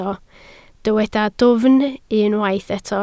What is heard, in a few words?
"dwfn", Welsh